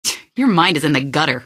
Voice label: answer, female; Garry's Mod; hl2

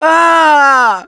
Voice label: Hurt Critical, russian; Gambler

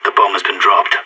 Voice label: spotted loose bomb, player vo sas

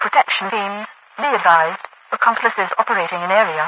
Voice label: accomplices operating, radiovoice; Garry's Mod; hl2; overwatch

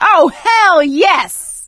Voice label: taunt, survivor voice; Left 4 Dead 2; producer

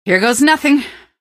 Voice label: squad affirm, female; npc; Garry's Mod; hl2

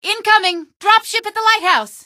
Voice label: drop lite, female; Garry's Mod; hl2

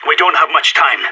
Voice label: radio bot time, player vo sas

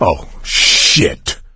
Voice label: reaction negative, survivor voice; Left 4 Dead 2; coach

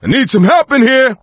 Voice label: call for rescue, voice; Left 4 Dead 2; coach

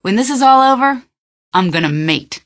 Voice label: question, female; npc; Garry's Mod; hl2

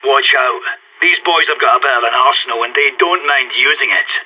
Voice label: radio lock n load, player vo sas

